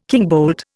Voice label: "kingbolt", Indian